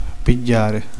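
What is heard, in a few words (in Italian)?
"prendere", Italian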